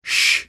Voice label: phoneme sh, American; American IPA phonic

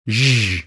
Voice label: phoneme zh, American; American IPA phonic

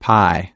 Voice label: pie, American

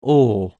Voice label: phoneme l, American; American IPA phonic

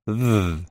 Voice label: phoneme th voiced, American; American IPA phonic

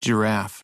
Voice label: slow giraffe, American; American IPA phonic

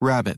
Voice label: rabbit, American

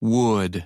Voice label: slow wood, American; American IPA phonic

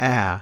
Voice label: phoneme short a, American

